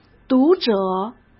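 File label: "读者", Mandarin Chinese; chinese-flashcards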